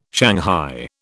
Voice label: "shanghai", British